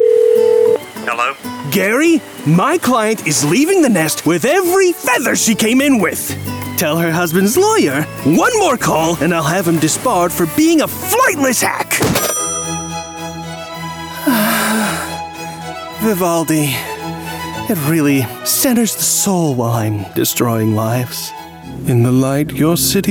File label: ANIMATION